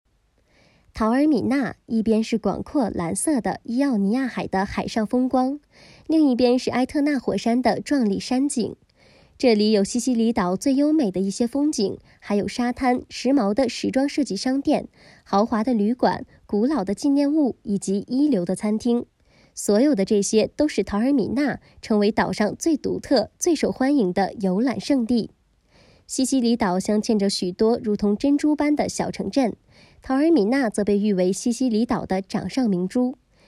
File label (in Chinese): 纪录片旅游